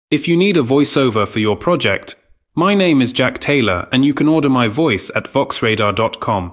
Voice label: UK

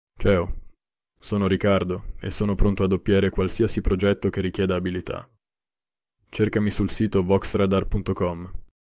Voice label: italian professional voiceover artist

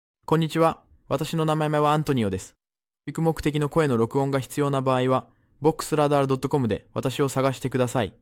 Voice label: japanese voiceover artist

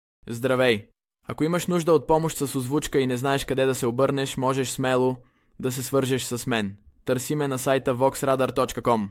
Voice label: bulgarian voiceover artist

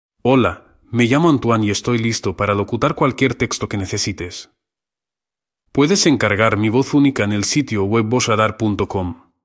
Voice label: ES; spanish professional voiceover artist

